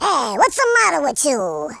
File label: boring; Capone